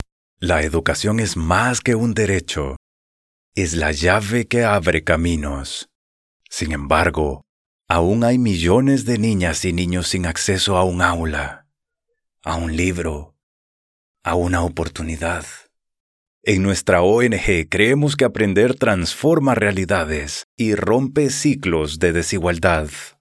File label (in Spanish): Corporativo Educacion inclusiva